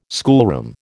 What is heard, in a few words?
"schoolroom", American